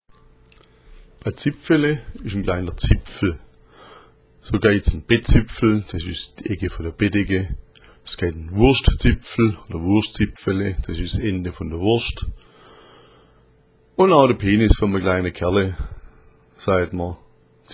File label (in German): "zipfele", schwaebisch